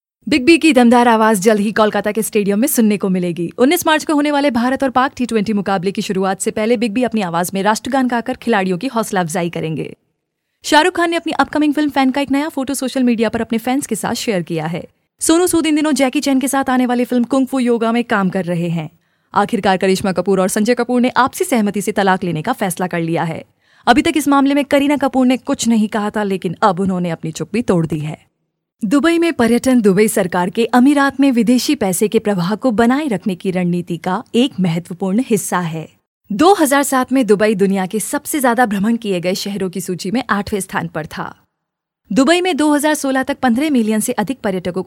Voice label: Narration and news